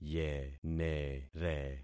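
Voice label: "yenere", concatenated syllables; Affixing experiment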